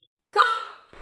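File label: Voice Acting Spanish Female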